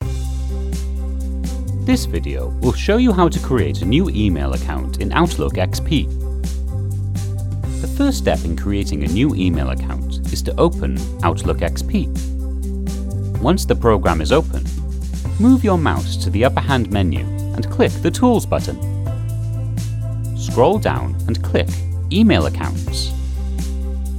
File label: english british e-learning